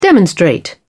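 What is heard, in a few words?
"demonstrate", British English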